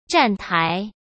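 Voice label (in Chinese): "站台", Mandarin Chinese; chinese-flashcards; hsk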